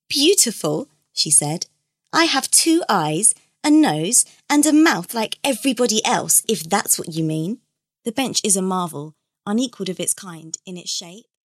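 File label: English, British, female; character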